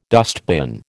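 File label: "dustbin", American English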